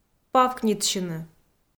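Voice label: "павкнитсьыны", Komi, female; audio dict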